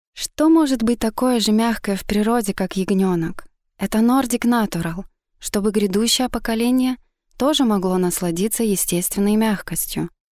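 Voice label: Russian Russia female sales; russian voice over talent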